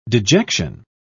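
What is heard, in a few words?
"dejection", British English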